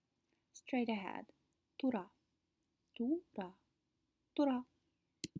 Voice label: Kazakh Straight ahead, phrasebooks

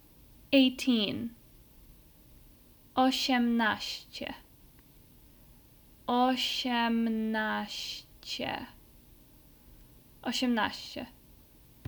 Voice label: Pol 18

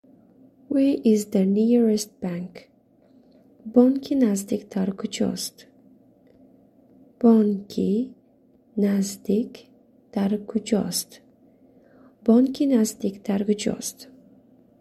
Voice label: Where is the nearest bank, tajik

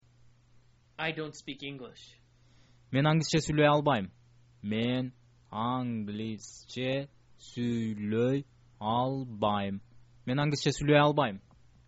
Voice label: i dont speak english, kyrgyz

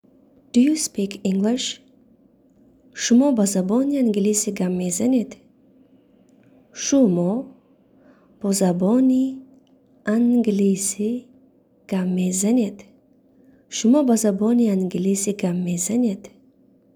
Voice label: Do You Speak English Tajik

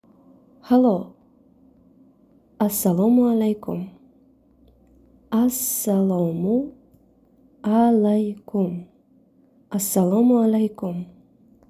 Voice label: "Hello", Tajik

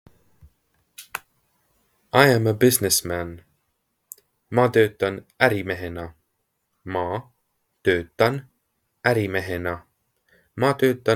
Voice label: I am a businessman, estonian; the talking estonian phrasebook

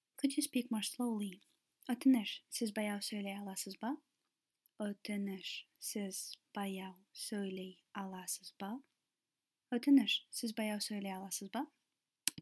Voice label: Kazakh Could you speak more slowly

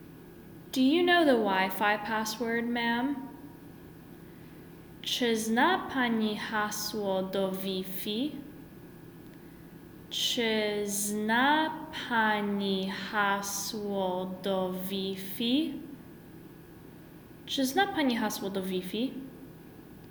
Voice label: Pol Do you know the Wi-fi password ma'am

